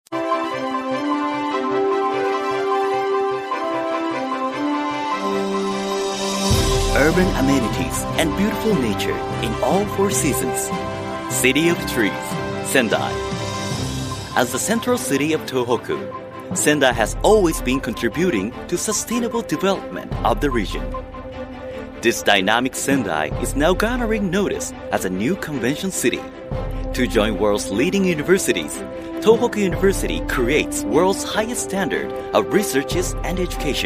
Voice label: english promos